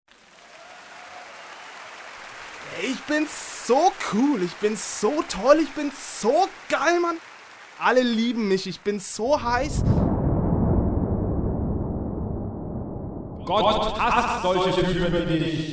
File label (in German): Werbung Sparkasse